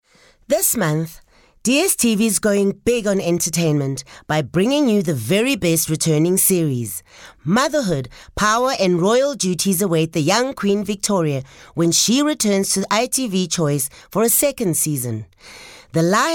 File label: English Promo